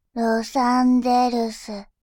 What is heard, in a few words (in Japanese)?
ロサンゼルス, バイノーラル右